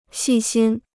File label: "细心", Chinese